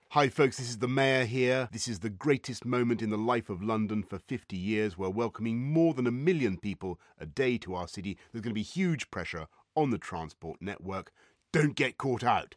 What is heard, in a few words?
tube announcements